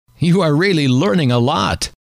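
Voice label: you Are Really Learning A Lot, male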